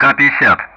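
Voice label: Speech Sound Russian; Common Player Ka-50; DCS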